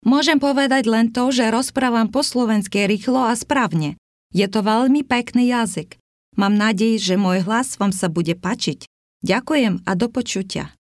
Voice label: Slovak